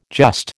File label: "just", American